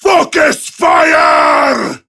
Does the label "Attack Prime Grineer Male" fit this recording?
Yes